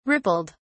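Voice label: "rippled", British English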